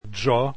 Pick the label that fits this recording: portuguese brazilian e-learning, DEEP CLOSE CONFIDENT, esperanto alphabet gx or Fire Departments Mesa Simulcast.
esperanto alphabet gx